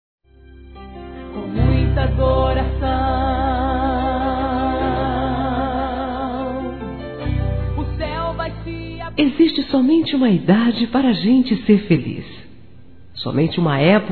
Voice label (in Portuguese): Evangélico Aniversário Irmã Feminino; Aniversários